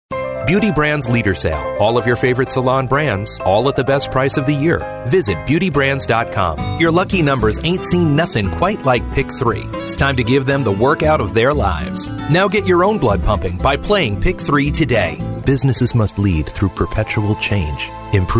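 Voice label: US English, male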